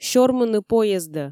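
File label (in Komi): сёрмыны поездӧ, Komi, female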